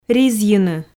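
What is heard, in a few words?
"ризйыны", Komi, female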